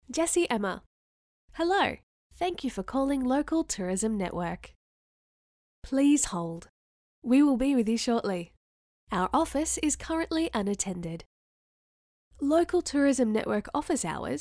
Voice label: Tourism Phone audition

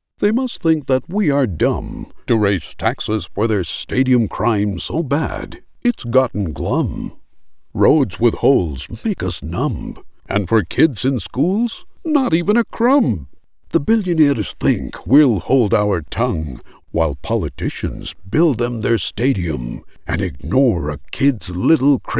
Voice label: Dr Suess style

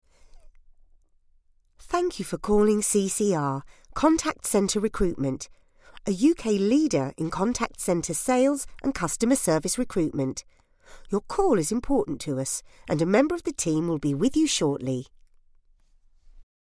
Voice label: telephone message

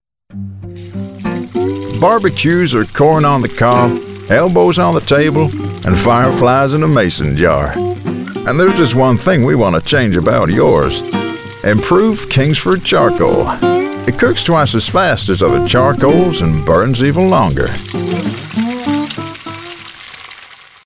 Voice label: BBQ Commercial

